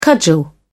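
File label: "cudgel", British English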